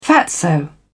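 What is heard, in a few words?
"fatso", British English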